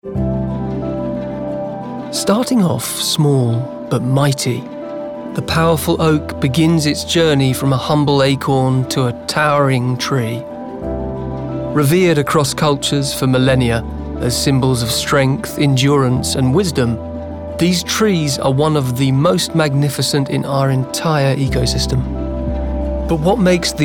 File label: Voice Reel, Documentary